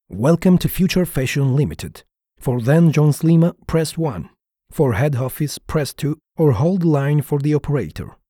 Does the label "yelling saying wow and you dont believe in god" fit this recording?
No